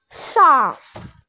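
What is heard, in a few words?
"shang4", Mandarin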